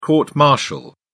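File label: "court martial", British English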